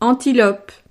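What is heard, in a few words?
"antilope", French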